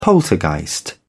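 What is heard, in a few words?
"poltergeist", British English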